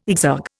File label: "exergue", Indian